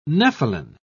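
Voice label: "nepheline", British English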